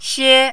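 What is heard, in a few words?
"xie1", mandarin, high level tone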